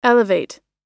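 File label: "elevate", American English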